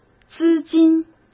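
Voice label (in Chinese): "资金", Mandarin Chinese; chinese flashcards; hsk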